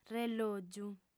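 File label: "rellògiu", Sardinian, female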